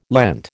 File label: "Lent", American